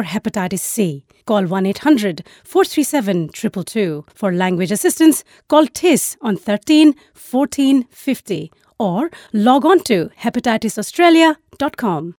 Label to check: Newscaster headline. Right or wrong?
Wrong